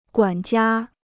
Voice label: "管家", Chinese